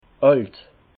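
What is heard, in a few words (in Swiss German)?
"alt", Alsatian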